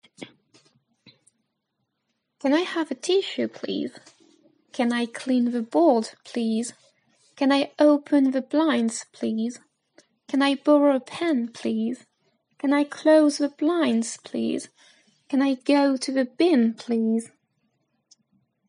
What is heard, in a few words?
Classroom English